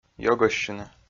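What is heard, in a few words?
"ёгӧссьыны", Komi-Zyrian, male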